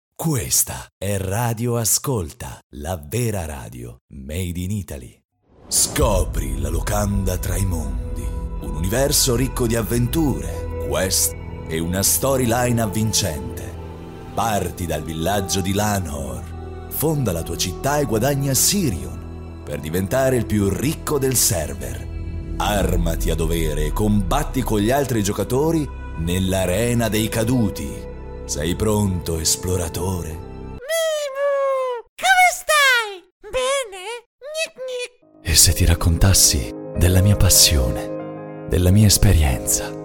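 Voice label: character theatrical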